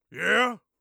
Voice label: "yeah" question, orc voice; Orc Voice Pack

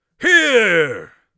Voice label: here, Mage Voice; Mage Voice Pack

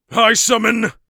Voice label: i summon, Mage Voice Pack

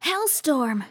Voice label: hellstorm, RPG Voice; RPG Voice Starter Pack

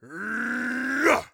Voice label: heavy attack, orc voice; Orc Voice Pack

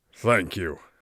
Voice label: thankful, Mage Voice; Mage Voice Pack

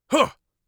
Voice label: light attack, Mage Voice; Mage Voice Pack

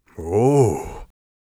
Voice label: inquisitive, Mage Voice; Mage Voice Pack

